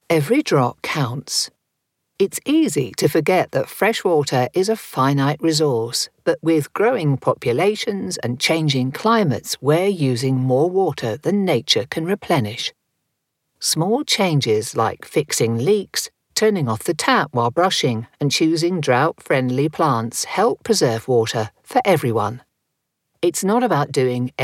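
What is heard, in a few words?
explainer, Warm Authoritative British Voice; saving water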